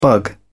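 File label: "bug", British English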